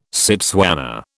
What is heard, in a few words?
"Setswana", British